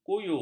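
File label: "kuyu", Malay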